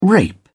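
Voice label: "rape", British English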